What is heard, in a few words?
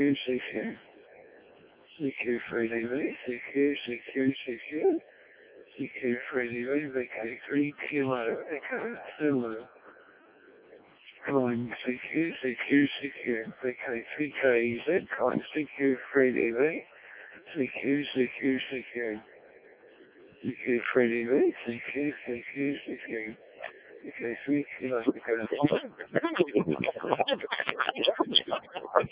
decoded speech, 7177KHz